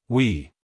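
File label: "we", American English, male; everyone-can-use-english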